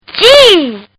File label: Kid Says Gee; Talking; Voice